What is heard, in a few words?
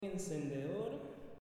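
"Encendedor", Spanish, male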